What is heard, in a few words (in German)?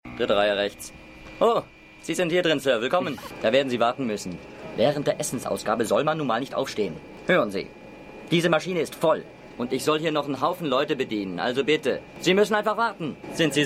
Flugbegleiter, Stimmproben; Seinfeld